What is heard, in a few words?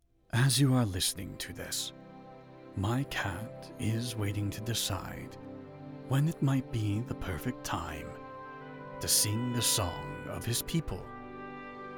Documentary sample